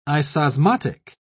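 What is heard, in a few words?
"isosmotic", British English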